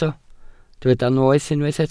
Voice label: "noeth" Welsh

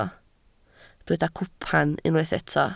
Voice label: "cwpan", Welsh

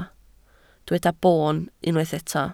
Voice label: "boon" Welsh

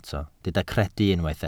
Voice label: "credu" Welsh

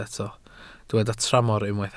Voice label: "tramor", Welsh

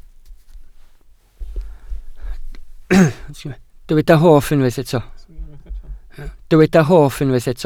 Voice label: "hoff", Welsh

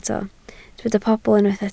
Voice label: "pobl", Welsh

